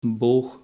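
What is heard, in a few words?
"boog", Dutch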